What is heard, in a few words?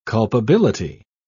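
"culpability", British English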